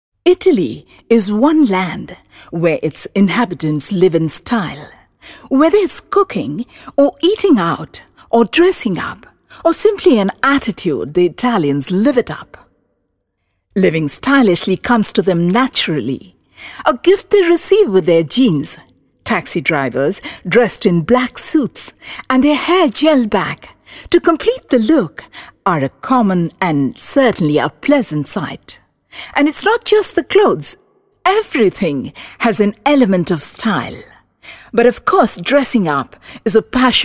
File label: English Female